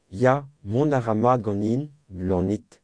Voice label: fine, Breton